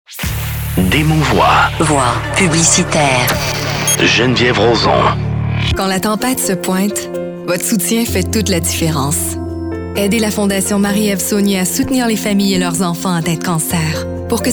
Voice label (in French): narration publicite